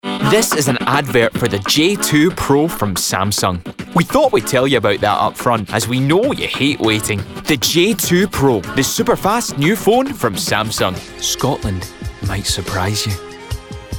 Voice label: Commercial